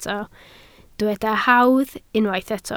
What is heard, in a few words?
"hawdd", Welsh